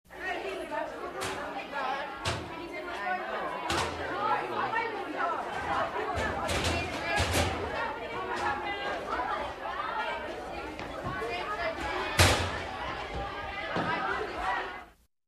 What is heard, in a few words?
Commercial; Nickelodeon Time Capsule; clarissa